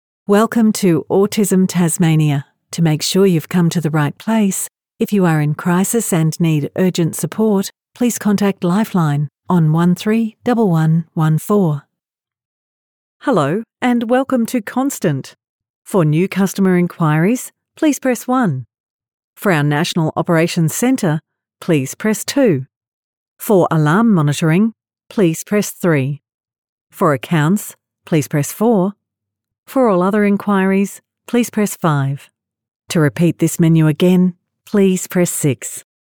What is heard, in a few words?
On Hold Samples